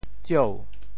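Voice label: "tsieu2", Teochew; 茑（蔦）; 潮州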